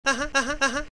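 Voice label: "Uh huh", male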